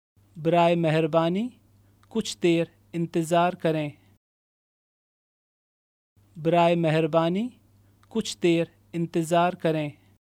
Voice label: Please wait for a while, Urdu

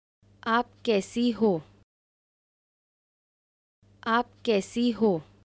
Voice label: How are you Hindi female

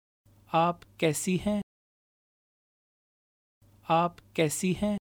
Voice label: How are you, Urdu, female

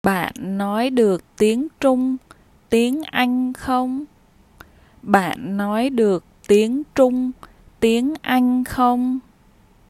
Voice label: Can you speak Chinese English, vietnamese; Concern for needs

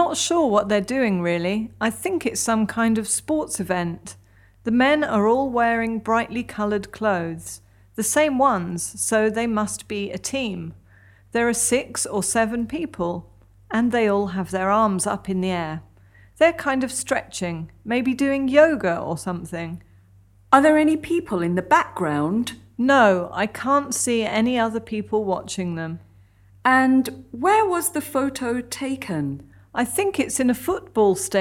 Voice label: conversation

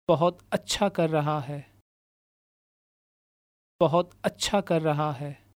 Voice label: (Name) is doing well, urdu, male

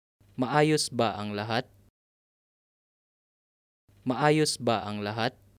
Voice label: Is everything fine Tagalog

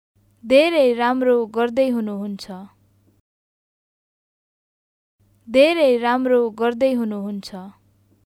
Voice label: (Name) is doing well, Nepali

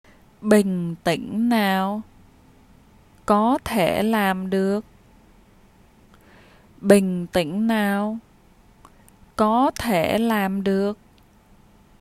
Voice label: Take it easy can do it, vietnamese; Encouragement

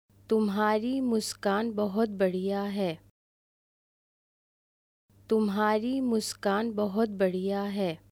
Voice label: Your smile is wonderful Hindi

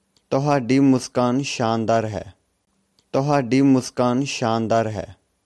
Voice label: Your smile is wonderful, punjabi

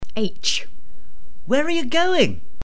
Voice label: emotion

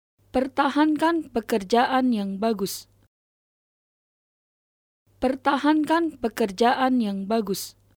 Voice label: Keep up the good work, Indonesian

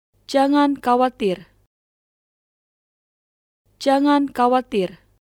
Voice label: No worries Indonesian

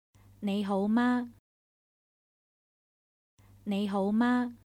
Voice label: How are you, Chinese

